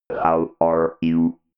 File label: robot voice how are you